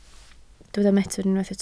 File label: "metr", Welsh